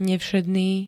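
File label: "nevšedný", Slovak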